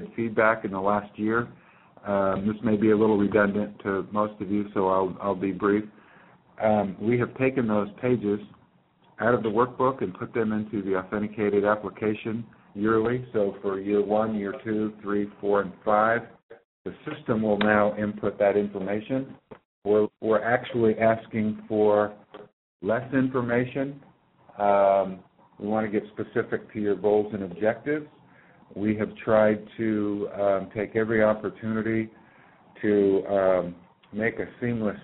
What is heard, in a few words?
Conference Call